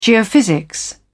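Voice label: "geophysics", British English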